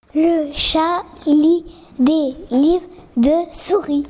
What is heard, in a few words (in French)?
chat; lecture animaux